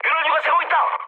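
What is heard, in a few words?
Korean damaged oil mood med, speech; War Thunder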